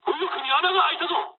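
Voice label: right wing mood low, speech japanese; War Thunder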